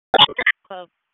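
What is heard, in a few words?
Wake Forest Police Dispatch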